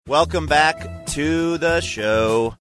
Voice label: welcome back to show funny

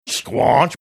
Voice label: saying squanch gruff

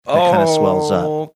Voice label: cute ohh

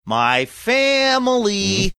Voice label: saying my family sing songy

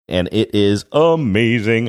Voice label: saying and it is amazing sing songy manner

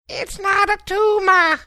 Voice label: saying its not a tumor funny

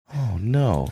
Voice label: quietly saying oh no

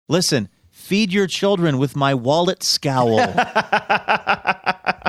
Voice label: listen feed your children with my wallet scowl

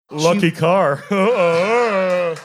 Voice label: saying lucky car making funny sound

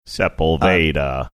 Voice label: sepulveda incorrect pronunciation used by gps

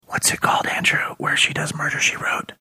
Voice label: whispering; whats it called

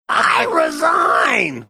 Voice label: saying i resign funny

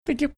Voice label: cute thank you

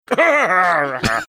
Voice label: grumbling mumbling

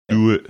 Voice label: saying do it funny

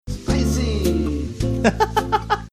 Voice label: distantly saying spicy

